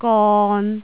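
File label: "gon3", Jyutping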